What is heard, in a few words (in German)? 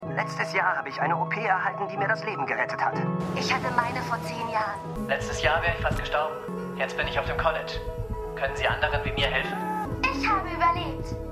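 Mädchen; Neiron Gretta; New Amsterdam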